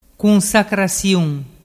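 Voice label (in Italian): "cunsacrasiun", Vernazzese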